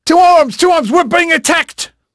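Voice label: English male, voice; Pirates Of The Caribbean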